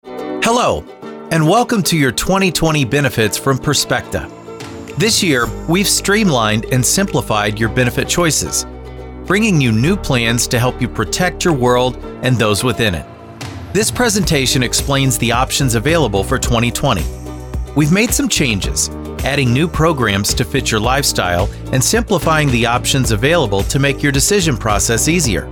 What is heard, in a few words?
Explainer Video